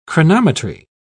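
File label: "chronometry", British English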